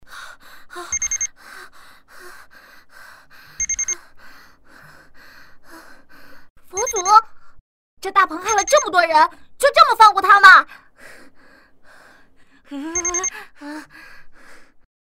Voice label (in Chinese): 女 角色 动画女